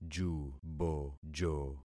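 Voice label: "jubojo", concatenated syllables; Affixing experiment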